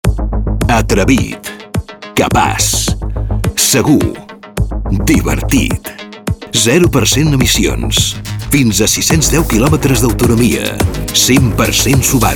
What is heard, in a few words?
radio, catalan; SOLTERRA